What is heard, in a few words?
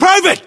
rank private, localized english battlechatter us